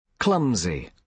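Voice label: "clumsy", British English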